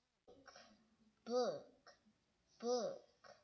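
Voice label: "book", spoken word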